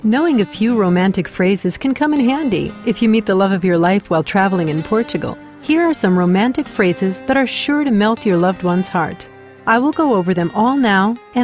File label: ELEARNING BILINGUAL PORTUGUESE